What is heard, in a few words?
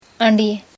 bull cruel fighting person